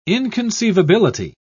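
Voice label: "inconceivability", British English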